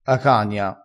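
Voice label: "acania", Italian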